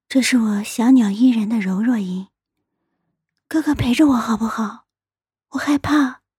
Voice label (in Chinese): 柔弱音